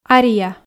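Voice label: "ария", Komi, female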